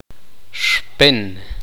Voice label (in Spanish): grueso